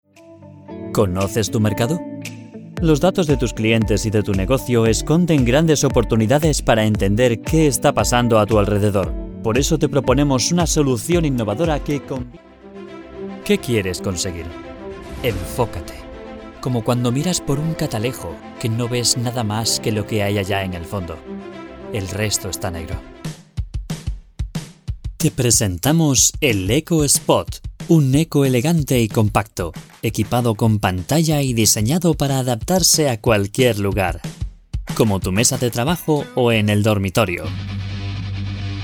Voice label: Spanish commercial; Español; España